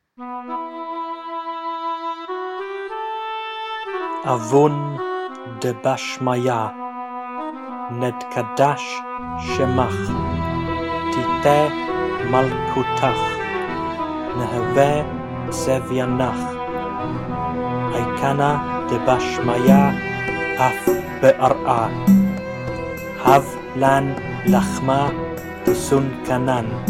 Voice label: male slower meditational